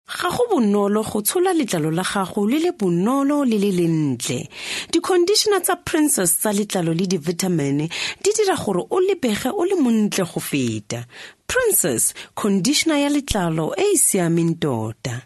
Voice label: Setswana